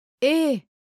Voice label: "he" francese